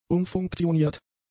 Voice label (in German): "umfunktioniert", German; adjectives